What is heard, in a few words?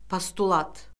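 "postulat", Azerbaijani; Orfoqrafiya Lüğəti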